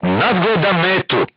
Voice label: voice message target lock, crew tanks serbian